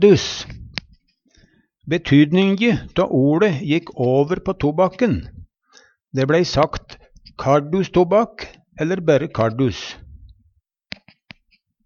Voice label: kardus, Numedalsmål

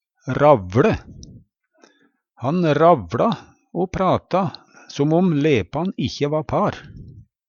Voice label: ravLe, Numedalsmål; Han ravLa o prata som om lepan ikkje va par.

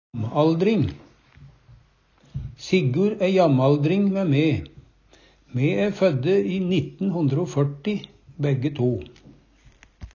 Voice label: jamaldring, Numedalsmål

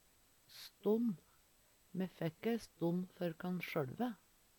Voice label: stonn, Numedalsmål; Substantiv hokjønn

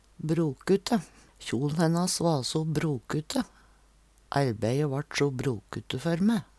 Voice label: "brokute", Numedalsmål

